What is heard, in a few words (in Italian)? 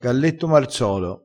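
"galletto marzolo", vocabolario dialettale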